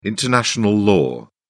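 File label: "international law", British English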